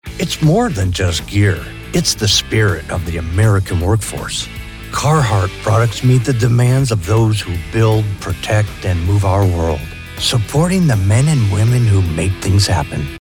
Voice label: spot; Carhartt